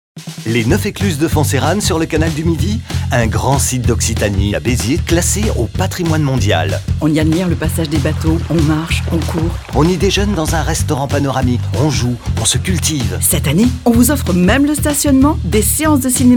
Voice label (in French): spot radio; beziers mediterranee; fb occitanie